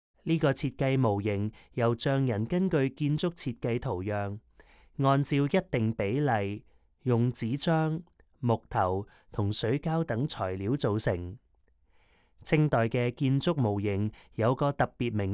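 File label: Cantonese, audio guide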